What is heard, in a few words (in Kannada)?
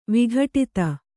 "ವಿಘಟಿತ", Kannada